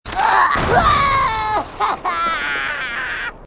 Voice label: grunt; h2dialogue; grunt crazy

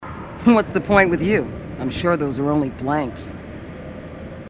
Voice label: female marine

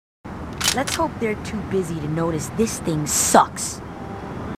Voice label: marines female, marine tough

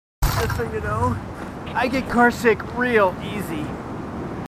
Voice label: marines, marine timid; h2dialogue

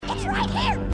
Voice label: right here, grunts

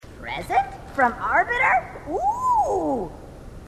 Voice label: grunt; h2dialogue; grunt crazy

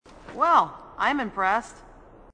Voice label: female marine, sassy

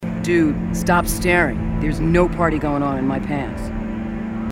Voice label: female marines

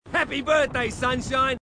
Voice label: marine, aussie; h2dialogue

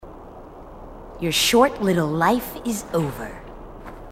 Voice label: marine tough

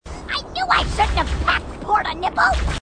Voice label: grunt; h2dialogue; grunt crazy

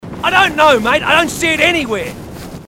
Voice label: marine aussie; h2dialogue

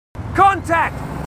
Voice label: marines, aussie; h2dialogue

